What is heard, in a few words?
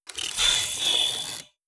robot reptilian greetings; Stellaris; synthetic dawn